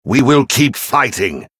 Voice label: male; venthyr trigger conquerors banner